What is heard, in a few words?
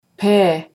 "pee", Macedonian